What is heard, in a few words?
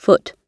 "foot", English